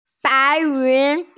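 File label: "bai2yun2", Mandarin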